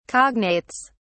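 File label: "cognates", British English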